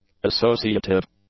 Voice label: "associative", English; adjectives

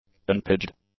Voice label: "unpaged", english; adjectives

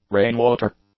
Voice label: "rainwater", English; nouns

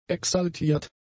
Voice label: "exaltiert", German; adjectives

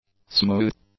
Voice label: "smooth", english; adjectives

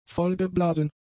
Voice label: "vollgeblasen", German; adjectives

